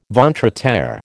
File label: "ventre Å terre", American